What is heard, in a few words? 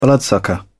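"bloodsucker", British English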